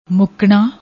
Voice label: "muknna", Punjabi

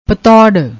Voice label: "patourr", Punjabi

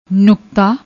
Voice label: "nukta", Punjabi

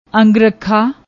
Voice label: "ang rakha", Punjabi; ਅੰਗਰਖਾ

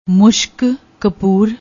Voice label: "mushak kapoor", Punjabi; ਮੁਸ਼ਕ ਕਪੂਰ; مُشک پور; noun, masculine; camphor; also; ਮੁਸ਼ਕ ਕਫ਼ੂਰ; Gurmukhi; Shahmukhi

